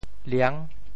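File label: "liang6", Teochew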